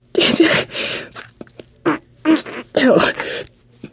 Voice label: radio combat color; Left 4 Dead 2; churchguy